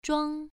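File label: "zhuang1", Chinese pronunciation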